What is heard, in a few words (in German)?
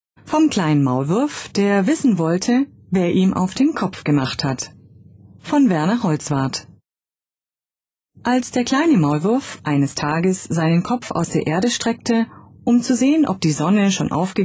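kinder hoeher, Frauen Stimmen; Kindergeschichte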